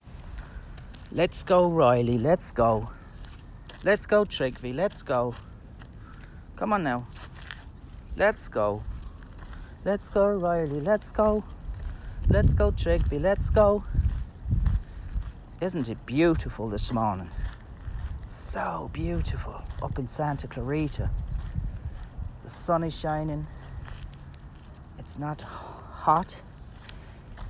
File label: Irish Natural Accent